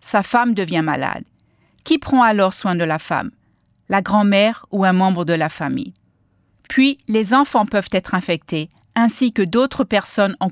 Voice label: french Transmission Within The Family, ebola radio spots; vhf